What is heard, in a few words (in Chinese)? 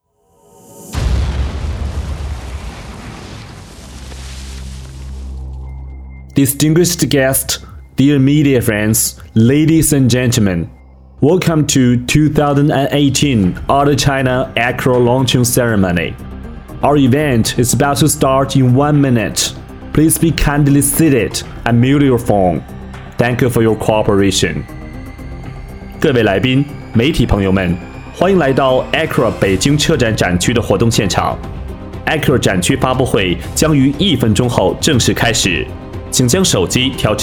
男声中英文国际车展媒体日开场画外音